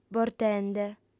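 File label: "bortende", female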